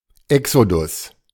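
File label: "Exodus", German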